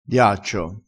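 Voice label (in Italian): "diaccio", vocabolario dialettale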